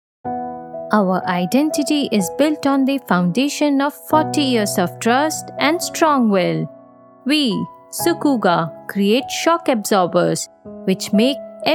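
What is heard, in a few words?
Explainer English, Female Voice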